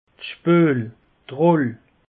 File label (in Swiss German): "spuel", alsace; peche